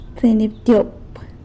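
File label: "tseinyabtyop" seventeen, San Lucas Quiaviní Zapotec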